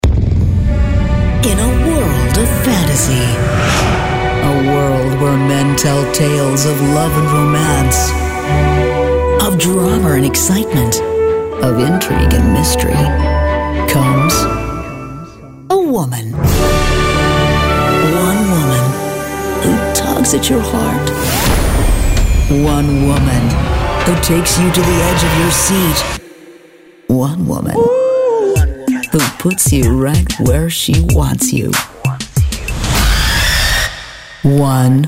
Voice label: Trailer US neutral